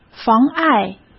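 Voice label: "妨碍" Mandarin Chinese; chinese-flashcards; hsk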